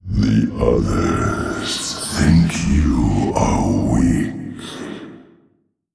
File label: Male Old God Bark